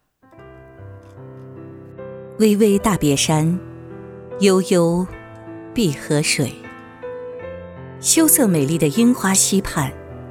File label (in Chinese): 宣传片; 六安樱花溪畔; 旅游风光